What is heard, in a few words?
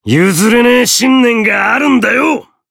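variable chant, Japanese; hardy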